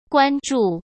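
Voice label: "关注", Mandarin Chinese; chinese flashcards; hsk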